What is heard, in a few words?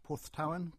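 English; Porthtowan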